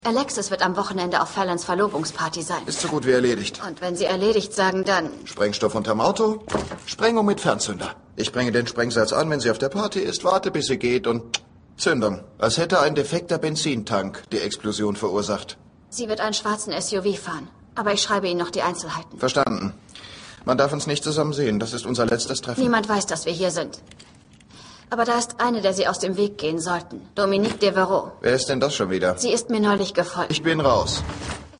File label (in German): Synchron; Dynasty